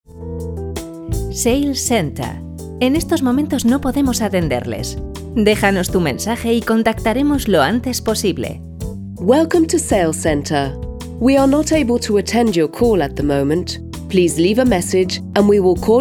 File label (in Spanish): locuciones centralita sales center